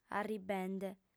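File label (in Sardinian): "arribende", female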